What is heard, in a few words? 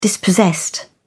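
"dispossessed", British English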